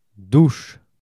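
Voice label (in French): "douche", French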